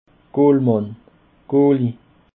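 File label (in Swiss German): "gollmann", Alsatian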